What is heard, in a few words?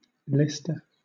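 "leister", British English; pronunciations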